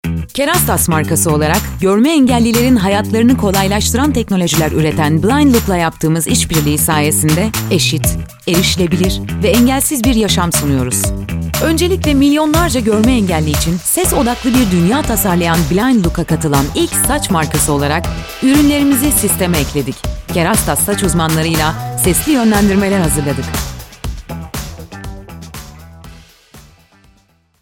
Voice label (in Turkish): tanitim, tanitim filmi seslendirme